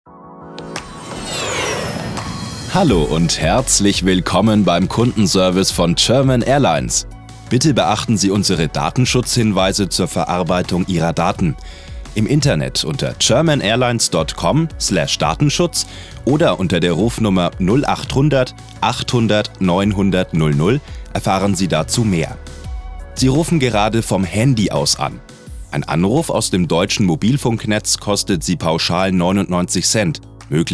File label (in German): telefonansage